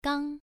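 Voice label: "gang", Mandarin Chinese, first tone, pronunciation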